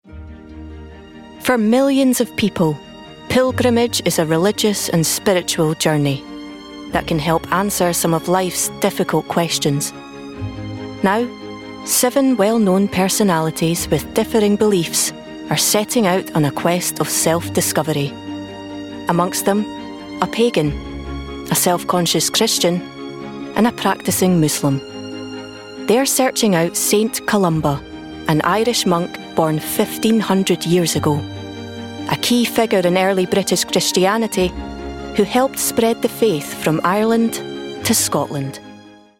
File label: Documentary